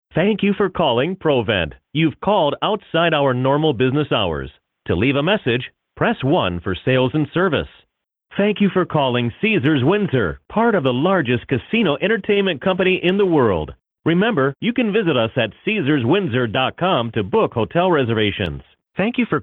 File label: english american ivr